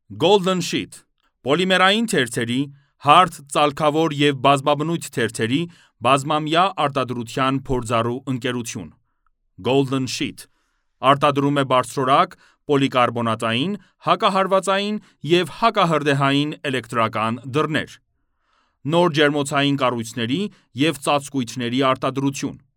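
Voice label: Armenian Male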